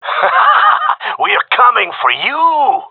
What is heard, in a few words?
round winning, gendarmerie male